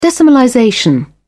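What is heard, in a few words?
"decimalization", English, British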